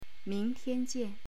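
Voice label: "ming2tian1jian4", Chinese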